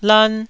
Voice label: "lan1", taiwanese syllables

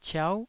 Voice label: "ciau9", Taiwanese

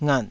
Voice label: "ngat3", taiwanese syllables

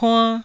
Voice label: "puann1", taiwanese

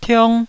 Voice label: "tiong1", taiwanese Syllables

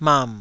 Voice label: "mam3", Taiwanese syllable

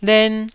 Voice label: "len1", Taiwanese syllable

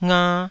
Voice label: "nga2", Taiwanese

